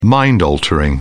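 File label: "mind-altering", British English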